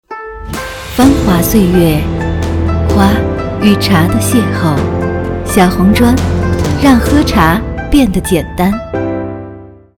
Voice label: 女 广告 红茶; 祁门红茶

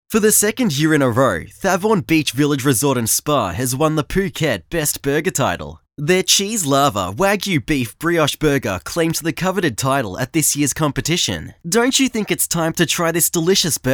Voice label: 外籍英男 网站广告

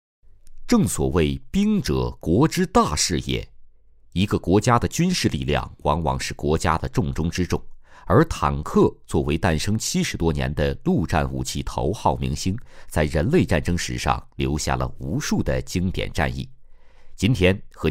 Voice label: 男 专题 坦克历史 解说, 记录风格; 坦克历史的九大世界之最

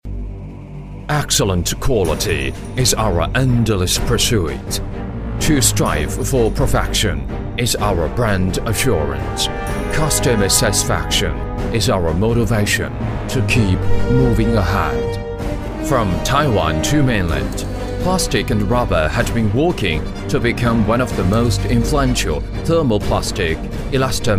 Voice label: 英男

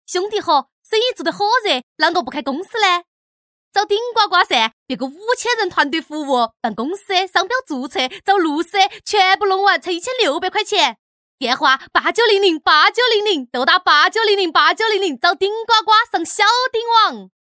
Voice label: 川话 广告, 国语女声配音; 顶呱呱广告

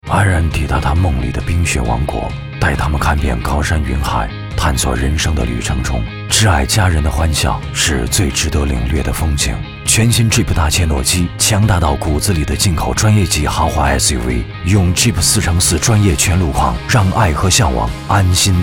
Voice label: 男; JEEP大切诺基

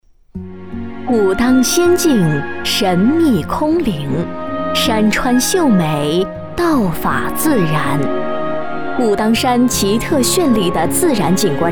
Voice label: 女 专题 唯美解说

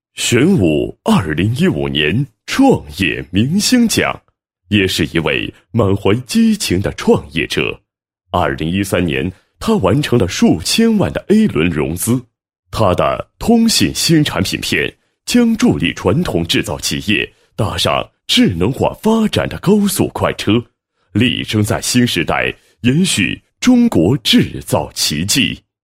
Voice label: 男 颁奖 创业明星奖; 玄武2015年创业明星奖

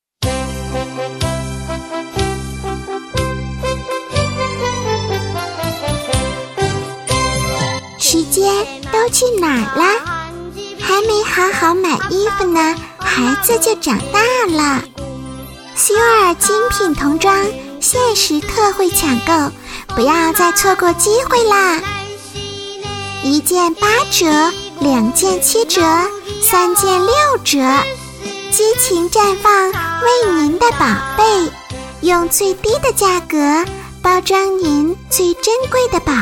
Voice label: 女 女童, 国语女声配音; suer精品童装

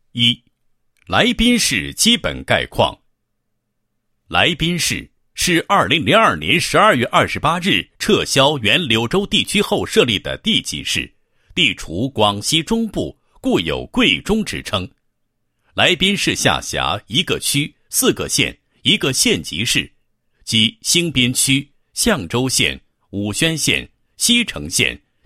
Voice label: 男-邮政工作汇报; 来宾市邮政公司

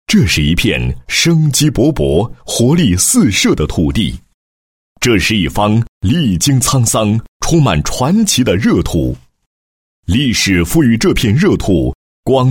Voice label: 男 医院 宣传介绍; 合山市人民医院宣传介绍

